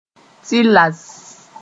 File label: "tɩlass", moore